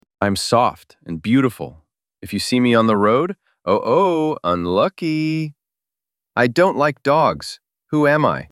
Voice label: cat, HALLOWEEN MYSTERY VOICES